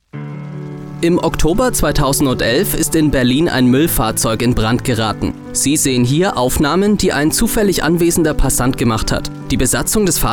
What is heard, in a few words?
E-Learning